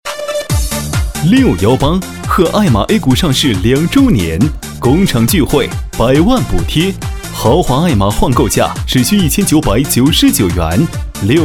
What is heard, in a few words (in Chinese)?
男 促销; 爱玛电动车